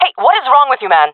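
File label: taking fire friendly, female voice; player vo; Counter Strike Model Pack; CS:GO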